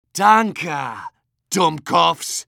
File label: medic jeers; vo; tf2